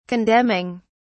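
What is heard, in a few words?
"condemning", British English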